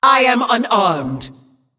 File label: Female Demon ERROR NEED WEAPON